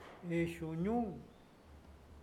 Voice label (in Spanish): encender, Huave